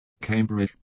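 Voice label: "Cambridge", English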